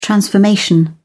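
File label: "transformation", British English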